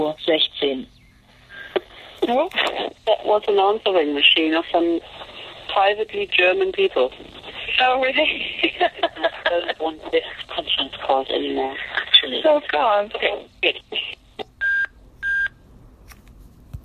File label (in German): Anruf Mailbox